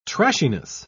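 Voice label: "trashiness", British English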